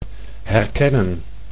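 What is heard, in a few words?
"herkennen", Dutch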